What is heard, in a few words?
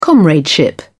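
"comradeship", English, British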